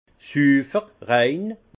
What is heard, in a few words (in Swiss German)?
"sufer", Alsace; vin